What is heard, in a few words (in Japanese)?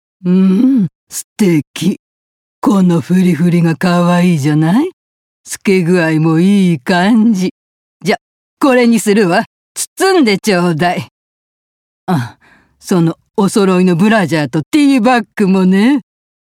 圧の強い人